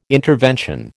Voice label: "intervention", American

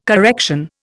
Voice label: "correction", Indian

